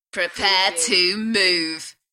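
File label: UK female, DJ vocals; beanstalk-audio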